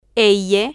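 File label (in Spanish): "ll", spanish, female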